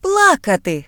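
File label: cry, Ukrainian; gcompris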